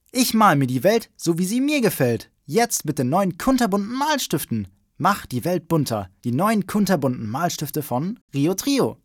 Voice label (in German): Jungen